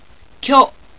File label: "kyo", Japanese